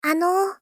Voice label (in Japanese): Polite, Call, "Ano"